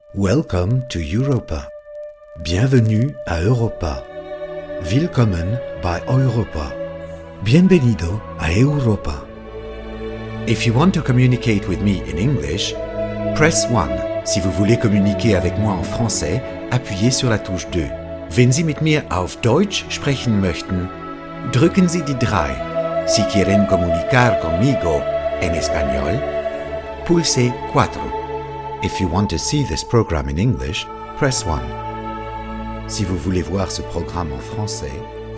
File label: Multilingual